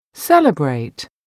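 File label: "celebrate", English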